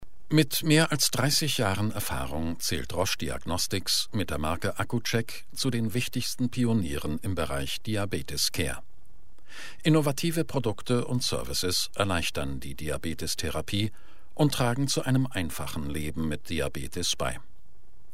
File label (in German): Imagefilm; Roche Accuceck